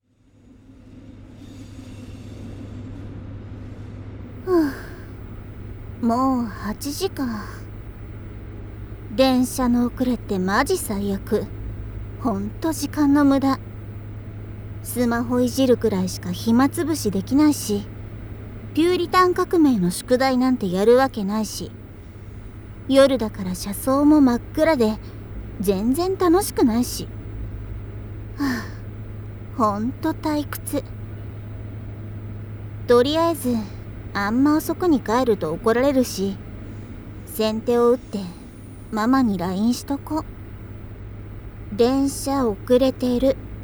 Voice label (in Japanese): 電車で帰宅中, ASMR